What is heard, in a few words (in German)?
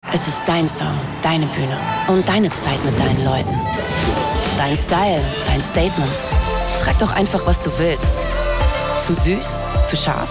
Werbung; Amex